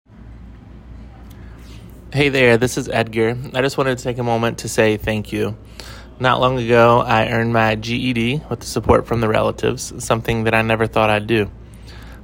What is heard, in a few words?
voice test voicemail